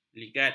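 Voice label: "ligat", Malay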